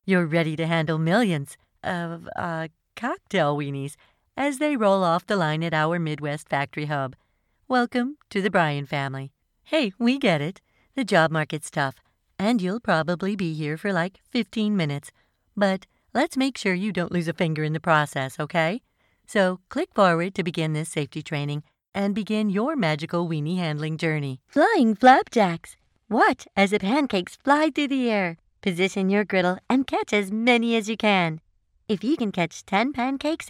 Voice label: E Learning Professional fun